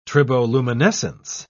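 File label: "triboluminescence", British English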